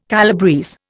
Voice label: "calabrese", Indian

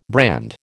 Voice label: "brand", American

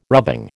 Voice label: "rubbing", American

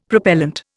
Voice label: "Propellent", Indian; Dictionary

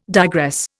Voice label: "digress", Indian

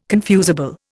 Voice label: "confusable", Indian

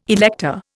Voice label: "elector", Indian

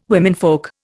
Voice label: "womenfolk", Indian

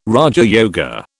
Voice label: "raja yoga", British

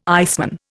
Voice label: "iceman", Indian

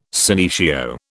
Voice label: "senecio", British

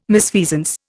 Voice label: "misfeasance", Indian

